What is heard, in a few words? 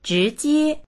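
"zhi2jie1", Mandarin Chinese; zhíjiē; 直接; 直接の、直に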